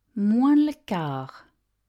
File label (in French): moins le quart, French; time in french